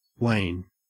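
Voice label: "wane", Australian English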